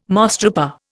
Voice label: "mosstrooper", Indian